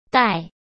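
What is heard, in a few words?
"逮", Mandarin Chinese; chinese flashcards; hsk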